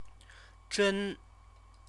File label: "zhen", Chinese; CTCFL; University of Oxford